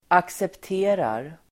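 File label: "accepterar", Swedish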